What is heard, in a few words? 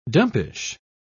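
"dumpish", British English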